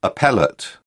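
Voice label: "appellate", British English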